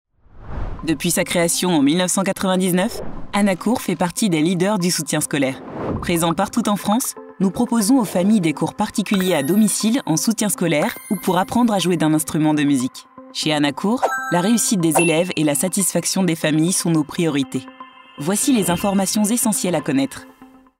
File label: french explainer videos, voice actors